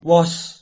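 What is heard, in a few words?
"wos", Proto-Indo-European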